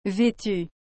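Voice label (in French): "vetu", French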